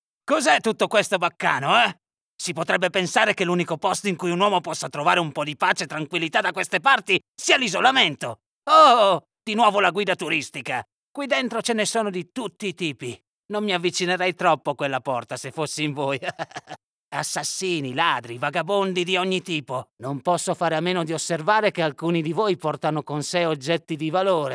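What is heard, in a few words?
Italian Videogame Character Raspy Prisoner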